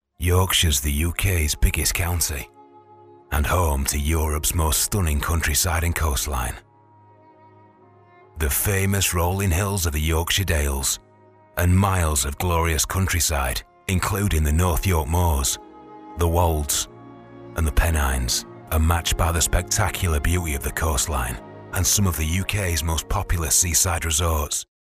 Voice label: yorkshire; sean bean